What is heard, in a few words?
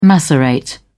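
"macerate", British English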